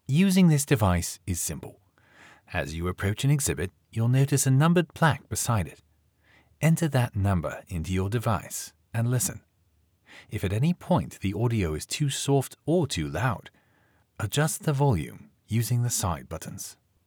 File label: Audio Guide British Instructions How To Use This Audio Guide